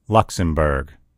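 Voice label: "luxembourg", English; gcompris; geography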